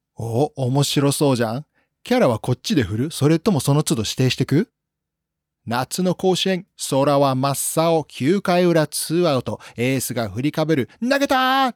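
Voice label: Japanese male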